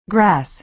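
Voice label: "grass", American English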